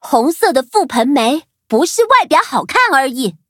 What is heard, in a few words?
loading zh-hant; Cookie Run